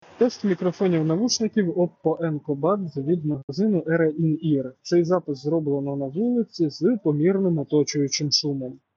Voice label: Oppo Enco Buds Outdoor

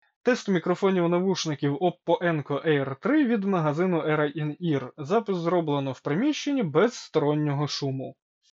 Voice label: Oppo Enco Air 3 Indoor

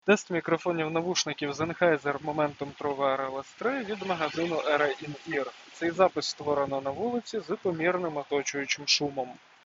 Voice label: Sennheiser MTW3 Outdoor

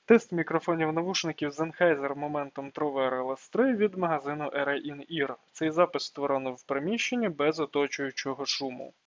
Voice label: Sennheiser MTW3 Indoor

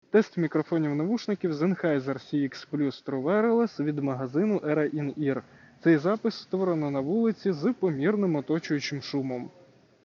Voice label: Sennheiser CX Plus Outdoor

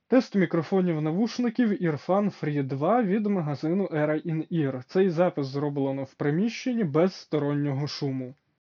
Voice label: Earfun Free 2 Indoor

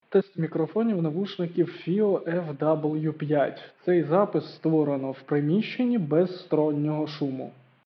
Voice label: FiiO FW5 mic test indoor

